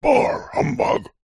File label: human male old grumpy says bah humbug